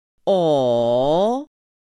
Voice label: "ǒ", pinyin; PinyinChart